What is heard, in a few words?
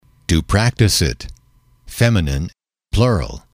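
to practice it, male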